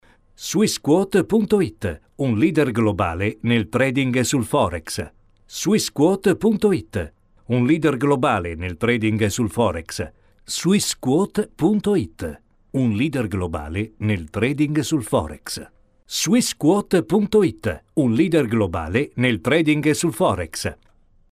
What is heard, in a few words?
spot radio; swissquote